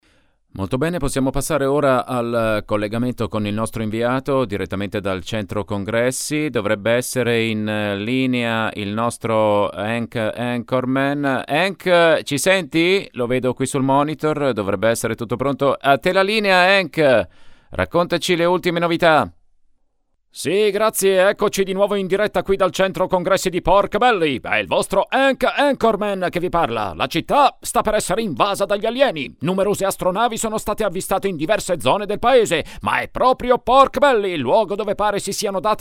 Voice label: cartoon